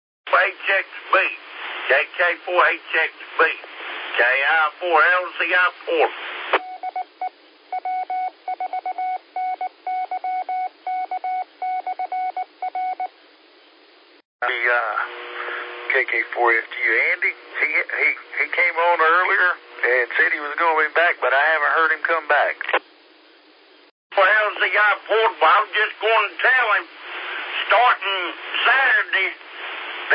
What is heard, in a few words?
qso rec simplex logic